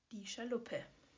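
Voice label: "Schaluppe", German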